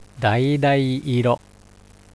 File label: "daidai-iro", Japanese